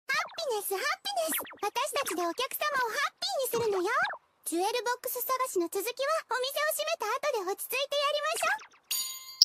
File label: being cute; sapphire